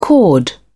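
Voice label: "chord", British English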